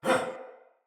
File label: Surprised Soldier Male; Battle; Barks; Dialog; Sinecure Soldier Male A